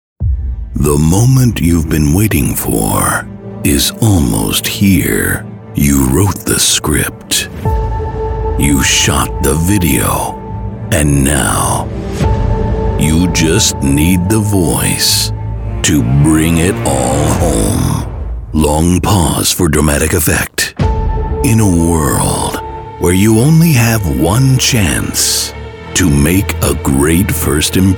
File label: ENG